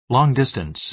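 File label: "long-distance", American English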